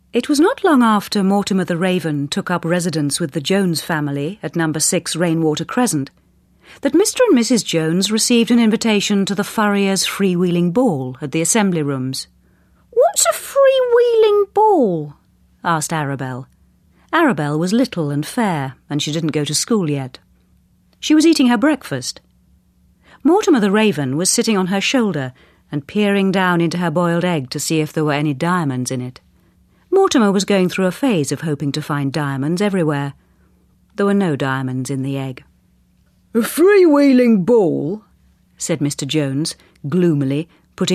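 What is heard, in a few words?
Drama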